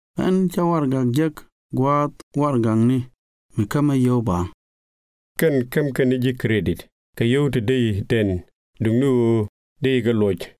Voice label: credit, nuer